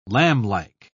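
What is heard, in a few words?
"lamblike", British English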